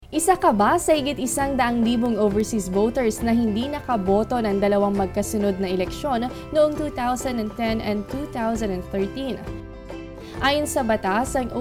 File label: PSA Reactivation